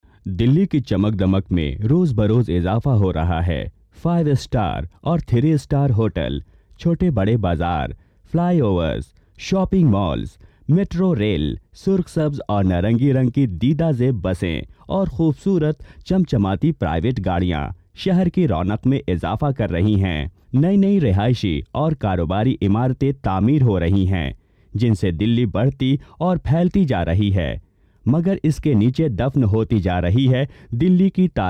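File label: urdu narration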